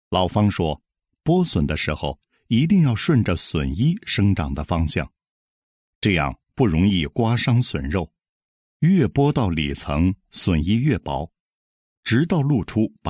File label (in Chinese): 男 专题 舌尖解说分格, 国语男声配音; 大气